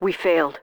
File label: all dead, radio; coop radio